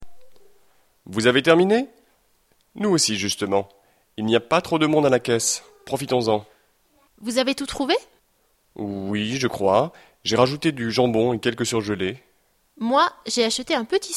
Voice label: dialogue, French